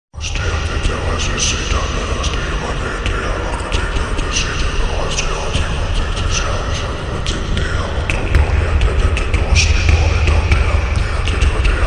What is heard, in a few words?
demon voice ringtone